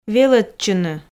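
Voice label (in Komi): "велӧдчыны", Komi, female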